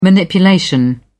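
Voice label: "manipulation", British English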